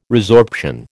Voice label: "resorption", American

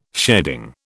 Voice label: "sheading", British

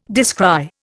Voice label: "descry", Indian

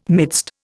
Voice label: "midst", Indian

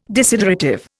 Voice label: "desiderative", Indian

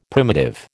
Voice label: "primitive", American

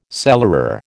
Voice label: "cellarer", American

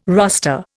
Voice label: "roster", Indian

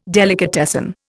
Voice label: "delicatessen", Indian

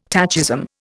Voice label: "tachism", Indian

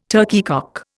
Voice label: "turkeycock", Indian

